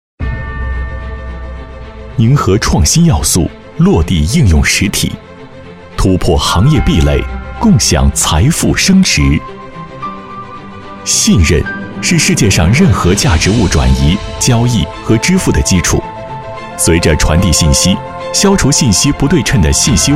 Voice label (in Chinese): 宣传互联网支付时尚大气